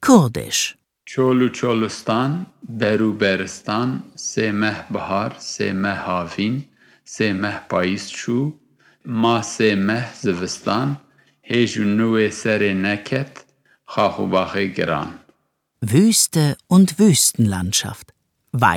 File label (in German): Kurdisch, Kinderverse